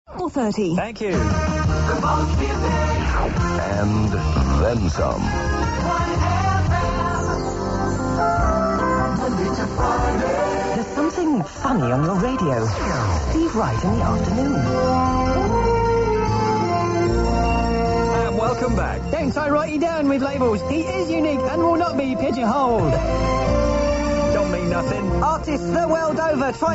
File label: news outtro characters; steve wright